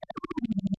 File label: Chatter; Gonner